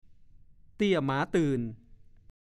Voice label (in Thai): "เตี้ยหมาตื่น", ภาษาโคราช; 1. เป็นคำพูดเยาะเย้ย หรือดูแคลนคนเตี้ย